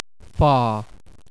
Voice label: "far", uk